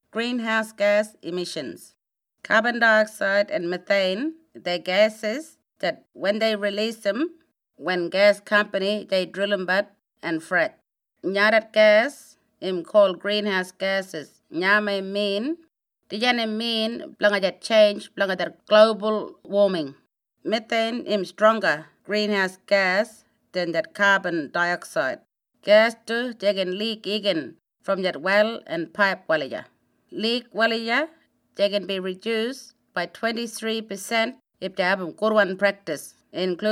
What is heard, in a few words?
Ngarinyman; GREENHOUSE GAS EMISSIONS